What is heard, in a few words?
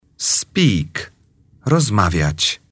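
"speak", English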